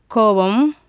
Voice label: anger, tamil; JIPA

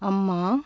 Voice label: "mother", Tamil consonants; JIPA

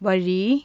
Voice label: "way", Tamil consonants; JIPA

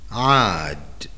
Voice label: came back, Arabic vowels

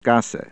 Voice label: lane, German

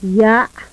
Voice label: voiceless epiglottal stop final, agul

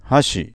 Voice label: chopsticks, Japanese pitch accent

